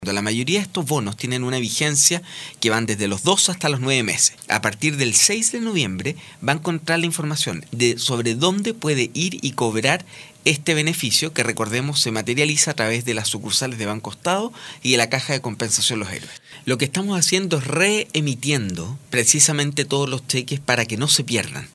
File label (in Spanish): cuña